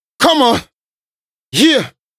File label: Rap Do It Adlip, vocal